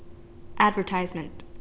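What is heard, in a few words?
"advertisement", Canadian English, female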